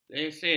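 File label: "lesen", Malay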